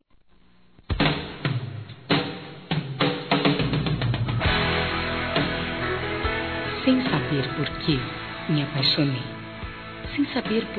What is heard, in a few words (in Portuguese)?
ANIV. ROMANTICA F; Aniversários; Aniversários diversos; fem